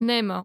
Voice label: female herd; hellenes